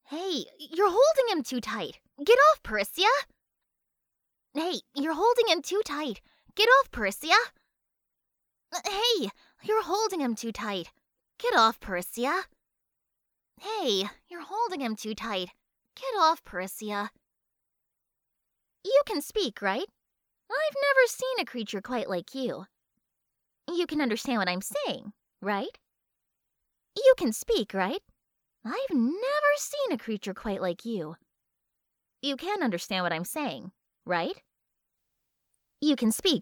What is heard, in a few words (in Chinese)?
外籍英语女 角色中学生